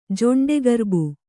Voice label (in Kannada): "ಜೊಂಡೆಗರ್ಬು", kannada